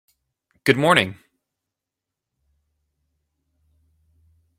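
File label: pronunciation "good morning", English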